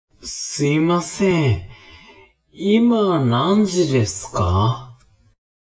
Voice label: man